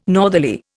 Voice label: "northerly", Indian